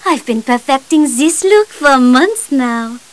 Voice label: chat; maeve